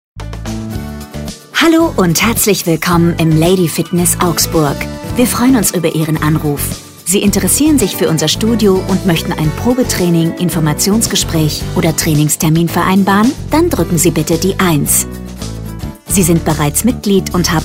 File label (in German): Ansage; Telefonansagen Fitnessstudio – Studio – GYM – Sportpark